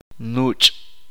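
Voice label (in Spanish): uspanteko pequeño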